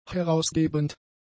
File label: "herausgebend", German; adjectives